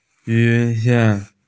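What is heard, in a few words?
"rain boots", Mandarin; yu3xie2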